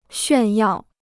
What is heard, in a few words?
"炫耀", Chinese